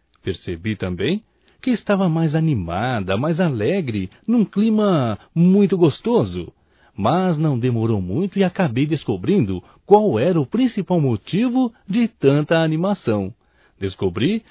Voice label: AGRADECIMENTO POR COMPARACER A MINHA FESTA, Só Voz, masc; Telemensagens; AGRADECIMENTOS

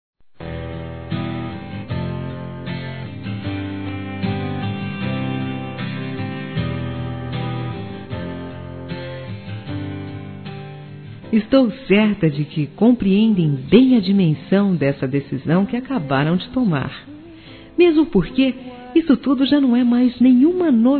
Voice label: Felicidade Casamento Feminino; Telemensagens; Telemensagens 2017

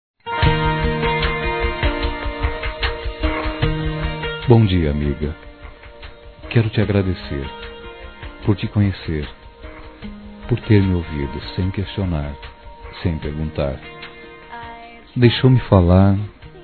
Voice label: Aniversário Amigo Masculino; Telemensagens 2017; Aniversários; Aniversário de Amigos

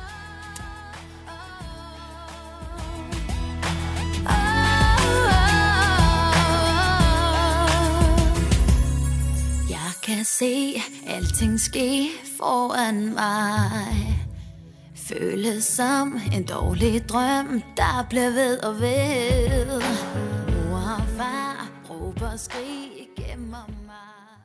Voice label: AGRAD PELO PRESENTE, masc; Telemensagens 2017; Convites e Agradecimentos

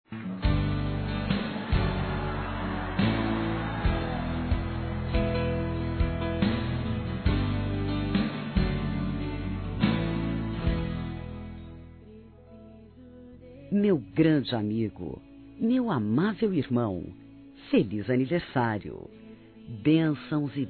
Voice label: Amiga Evangélica Feminino; Aniversários; ANIV. Evangelico

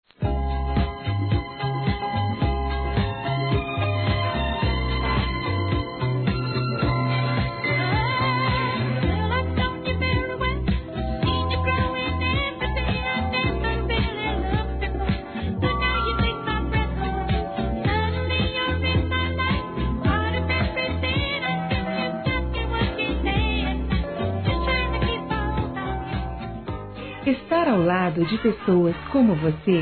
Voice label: SUA IMPORTÂNCIA PARA MIM F; Aniversários; Aniversários diversos; fem

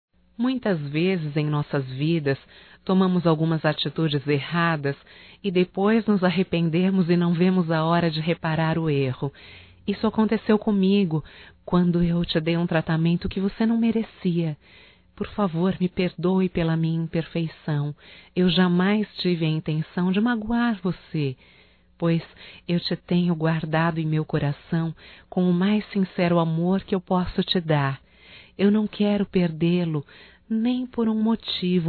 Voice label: PERDÃO ROMÂNTICA (F); Românticas

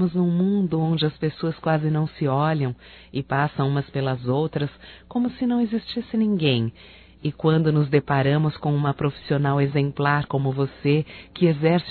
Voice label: PARA TELEFONISTA (F); Românticas

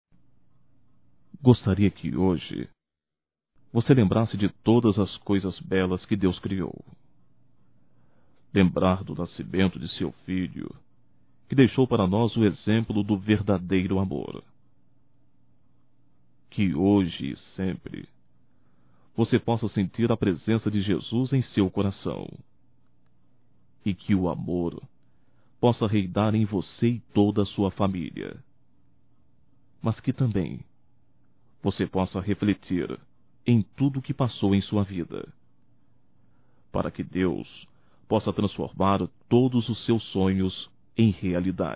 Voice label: NATAL ANO NOVO NEUTRO, masc; Telemensagens 2017

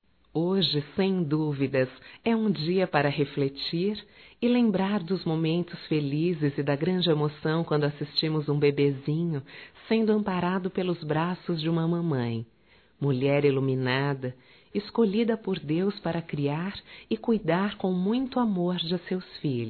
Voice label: Dia das Mães Geral Feminino; Telemensagens 2017

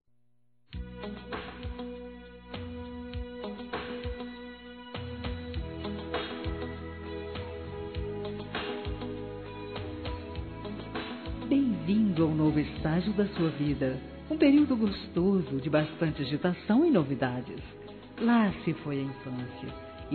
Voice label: Aniversário Adolescente FEMININO

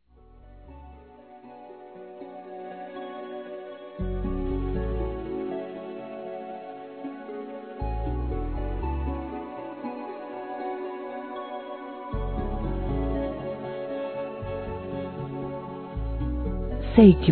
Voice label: Conquista Romantica Suave Feminino; Telemensagens; Conquistas Cantadas paqueras; Telemensagens 2017

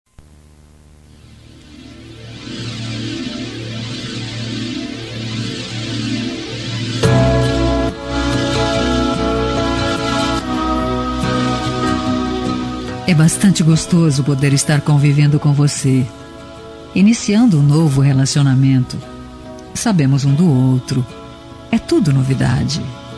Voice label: ROM INICIO DE NAMORO FEM

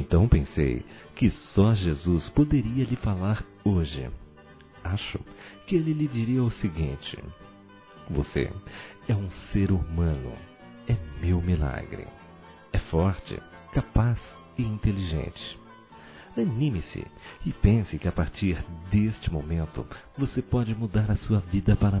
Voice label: NATAL P AMIGO (A) M; Telemensagens; Natal e Ano novo

